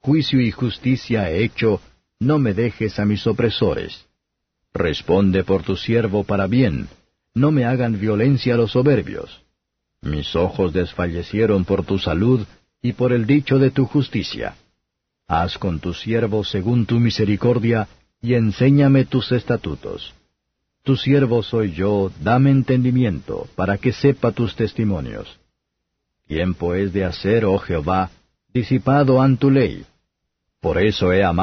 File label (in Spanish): salmos spanish; 119; ain